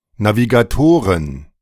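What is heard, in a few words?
"Navigatoren", German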